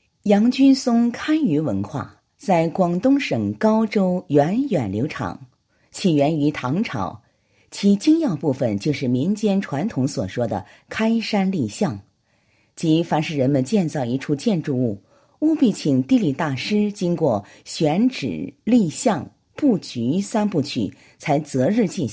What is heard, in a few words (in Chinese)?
女 人物简介; 杨筠松堪舆文化传承人